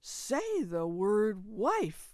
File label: "wife" happy, Toronto emotional speech